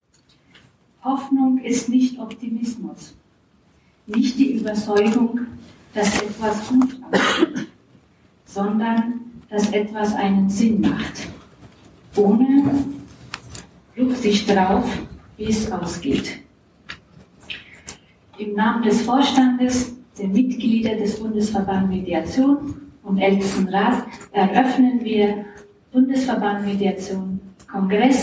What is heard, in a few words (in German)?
Kongressstimme Eroeffnung